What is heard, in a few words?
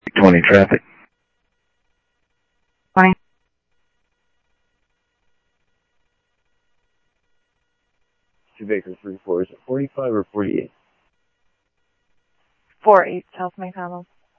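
Sheriff Lake Patrol, scanner audio; ThompsonPeakScot; MCSO Lakes 1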